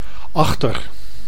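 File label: "achter", Dutch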